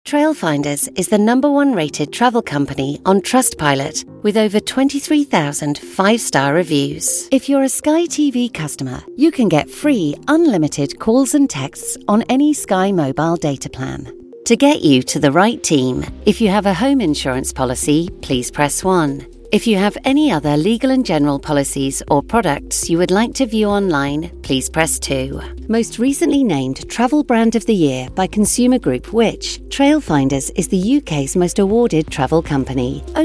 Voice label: ivr